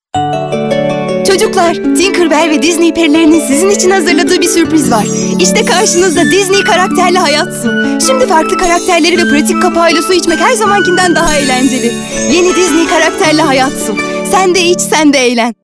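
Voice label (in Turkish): enerjik esprili, seslendirme; enerjik tempolu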